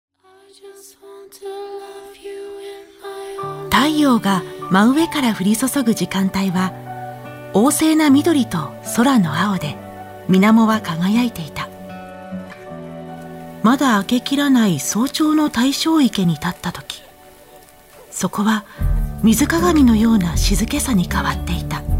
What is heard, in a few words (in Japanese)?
朗読